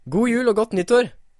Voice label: christmas norwegian